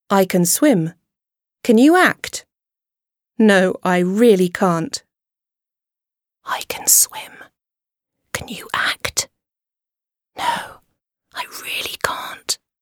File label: whisper